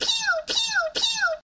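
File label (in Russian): жалобным детским голосом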